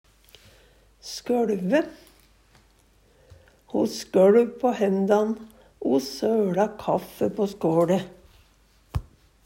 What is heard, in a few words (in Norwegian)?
"skøLve", Numedalsmål